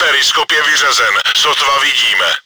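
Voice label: surveying devices crit damaged, чешской озвучки; Czech; World of Tanks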